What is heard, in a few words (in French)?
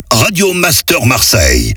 Voix off Accap medium; Radio Master Marseille